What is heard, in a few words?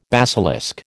"basilisk", American